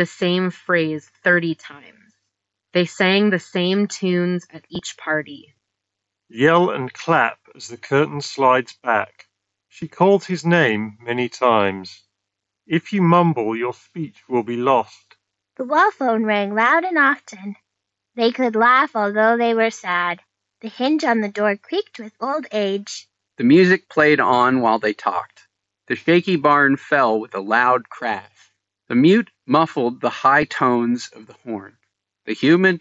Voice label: SoundPEATS Capsule3 Pro Plus Ideal

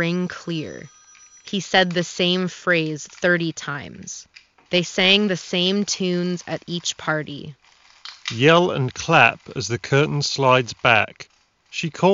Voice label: Wireless Office microphone sample; Steelseries Arctis Nova 4

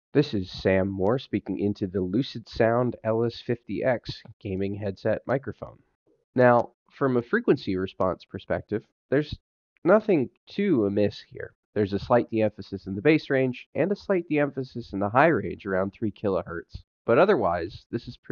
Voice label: LucidSound LS50X mic Sample

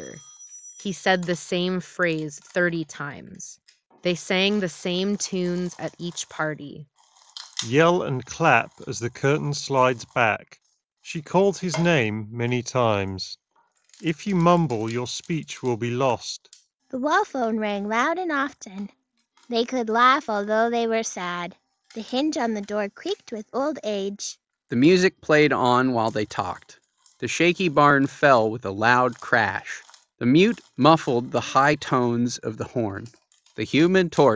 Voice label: Wireless Office microphone sample; JLAB Nightfall; gaming headset

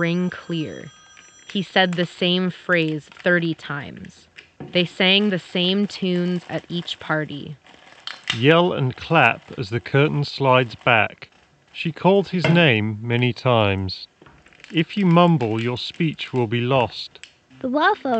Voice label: wired, Office microphone sample; Alienware 720H; alienware aw720h

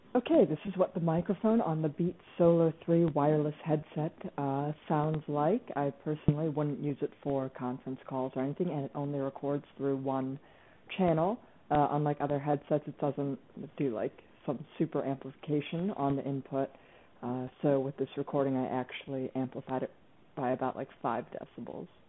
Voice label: Beats Solo3 Wireless microphone voice

